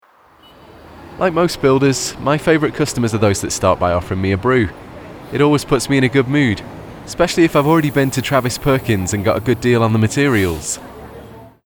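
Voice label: Northern English builder in a vox pop style, Male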